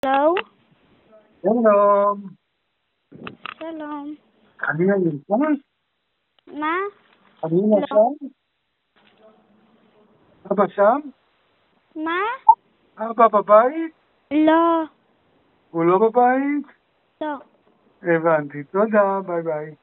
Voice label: Call recording